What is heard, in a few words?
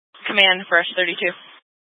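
Incident Tac 2, Scanner audio recording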